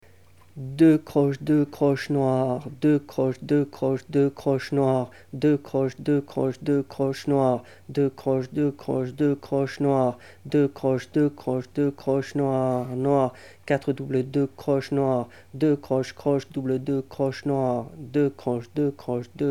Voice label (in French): lecture rythmique